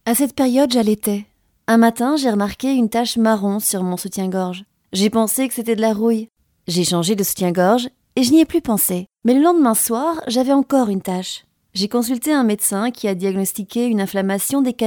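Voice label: French, female, narration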